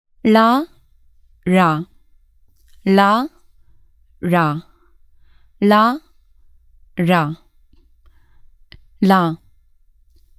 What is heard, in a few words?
Malayalam Recordings Basic Pronunciation